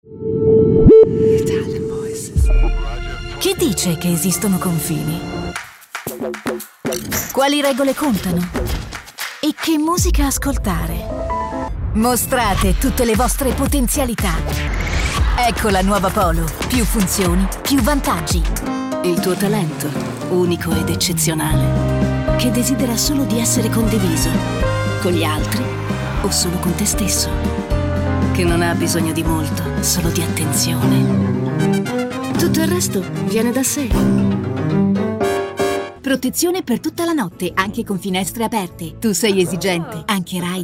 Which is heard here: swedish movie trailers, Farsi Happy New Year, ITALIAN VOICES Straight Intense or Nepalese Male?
ITALIAN VOICES Straight Intense